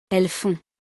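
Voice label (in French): "elles font", French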